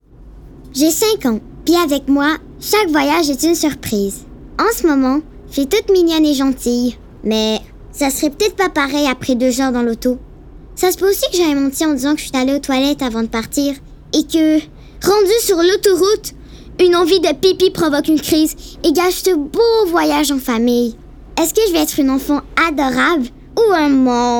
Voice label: voix jeune voiture, FR

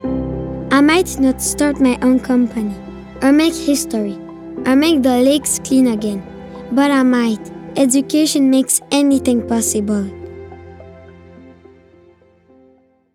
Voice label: vocal Education

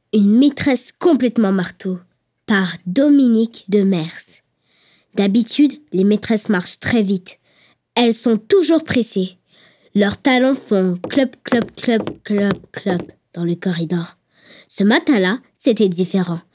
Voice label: Narration FR

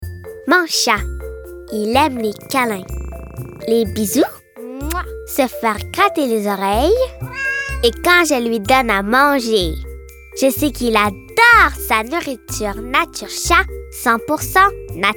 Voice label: pub chat FR

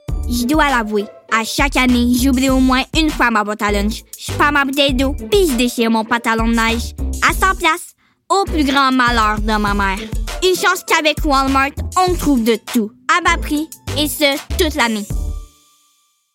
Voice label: Demo de voix; Walmart

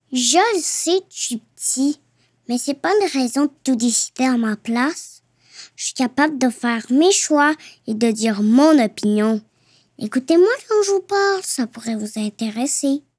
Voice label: Demo vocal; FR 2023 - Publicité 1

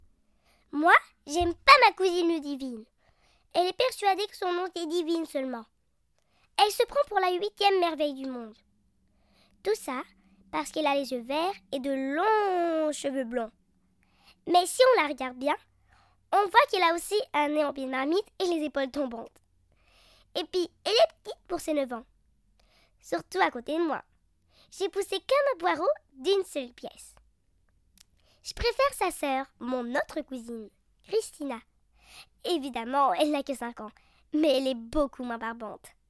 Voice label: Français international

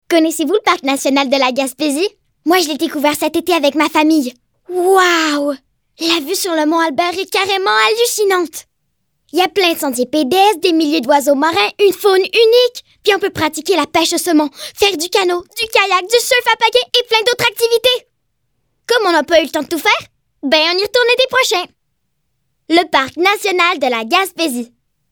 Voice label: pub fictive

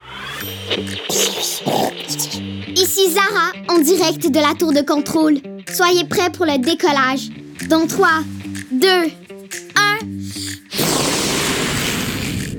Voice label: demo voix FR; NANO

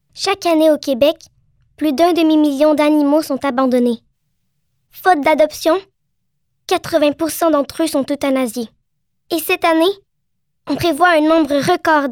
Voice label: pub fictive

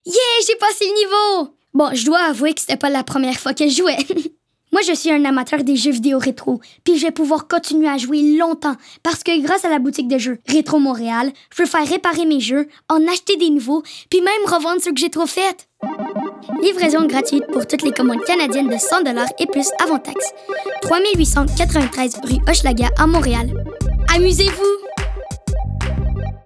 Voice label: Vocal Retro FR; Retro Montreal

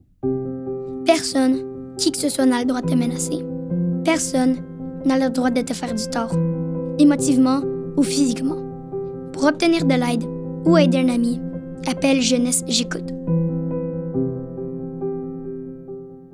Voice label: Jeunesse J'ecoute FR

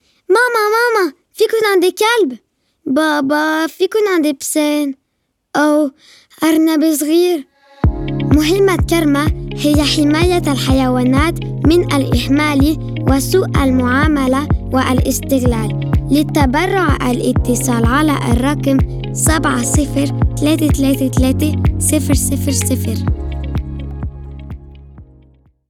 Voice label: Vocal Arabe

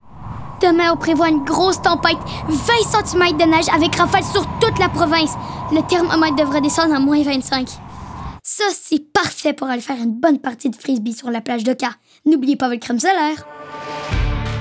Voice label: Vocal FR; Subaru

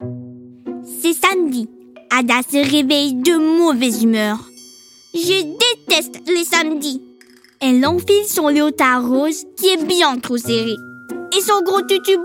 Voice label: voix Livre Audio

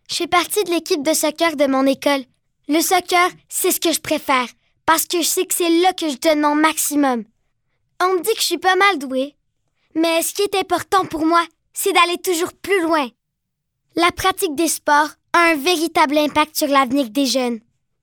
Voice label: pub fictive

